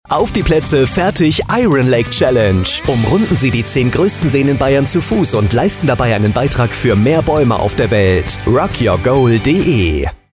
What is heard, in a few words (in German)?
funkspot; iron lake challenge